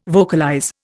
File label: "vocalize", Indian